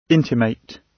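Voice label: intimate, British; Language, linguistics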